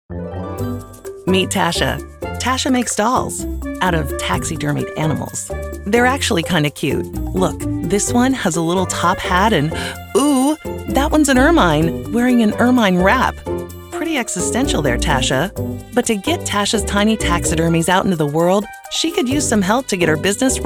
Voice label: explainer, commercial voiceover; Friendly, confident, spicy, sweet; Commercial Voiceover, TV and Radio